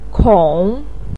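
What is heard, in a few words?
"kong" third tone, chinese, voice pinyin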